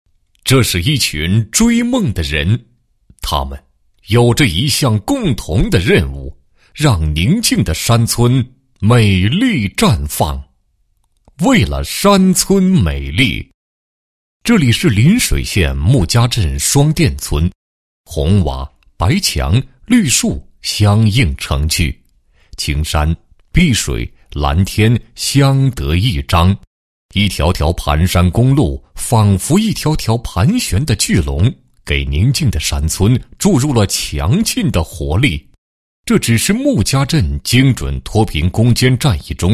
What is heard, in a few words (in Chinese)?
男-政府扶贫宣传; 牟家镇精准扶贫电视专题片